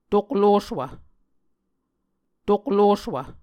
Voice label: "tokloswv", muscogee

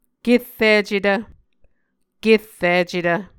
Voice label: "kerricetv", Muscogee

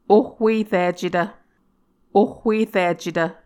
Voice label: "ohhuericetv", Muscogee; Mvskoke Words with Repeated Consonants; A Dictionary of Creek/Muskogee; SIL International

